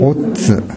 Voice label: "ottu", minami yamagata

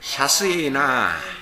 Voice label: "shasue", minami yamagata

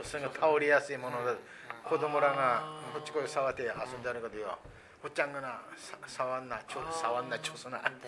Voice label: chosu, minami yamagata

